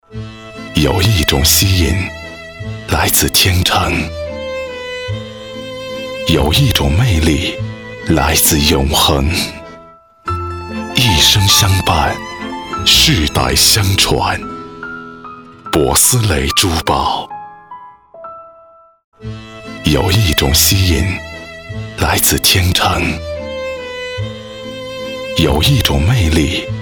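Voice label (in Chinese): 国语 男声 广告 大气浑厚 大气浑厚磁性 沉稳 科技感 积极向上 时尚活力; 柏斯蕾珠宝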